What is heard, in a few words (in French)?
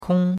"kong1", chinois; Cours-de-Chinois